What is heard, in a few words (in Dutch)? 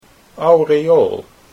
"aureool", Dutch